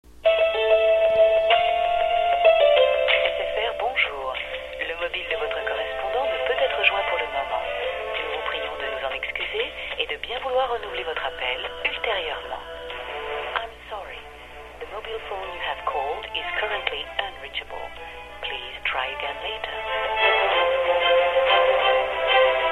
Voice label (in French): Mobile GSM Injoignable; SFR